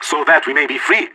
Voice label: radio lock n load; player vo leet